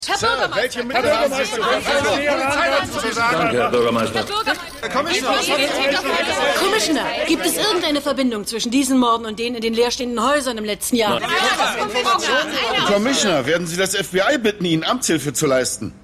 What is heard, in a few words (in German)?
Reporter Menge; The Wire